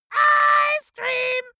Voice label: dwarf scream ice cream english